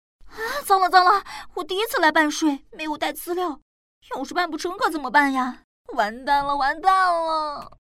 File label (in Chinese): 角色年轻女